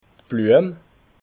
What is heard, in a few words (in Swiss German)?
"bluem", alsace